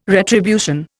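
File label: "retribution", Indian English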